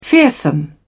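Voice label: "fearsome", British English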